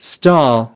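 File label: "stall", English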